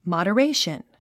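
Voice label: "moderation", English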